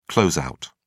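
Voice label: "closeout", British English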